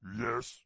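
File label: what need, game voice; warcraft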